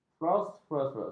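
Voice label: "fluorspar", Malay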